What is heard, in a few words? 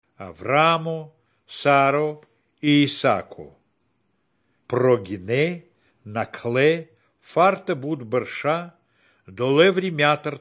good news baltic romani